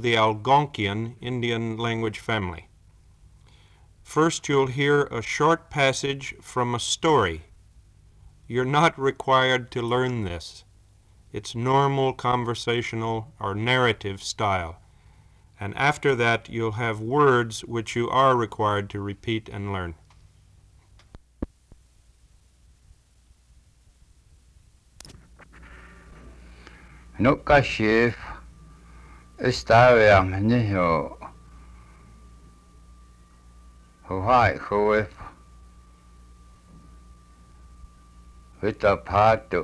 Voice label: Cheyenne exercises